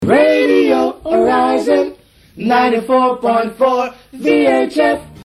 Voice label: Radio vhf; Horizon Radio Jingles 1981 to 1985; Radio Horizon 94.4